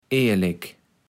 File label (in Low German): "ehrlek", kaevels platt